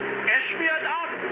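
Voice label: German aircraft destroyed mood high, speech; War Thunder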